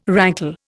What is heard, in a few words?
"rankle", Indian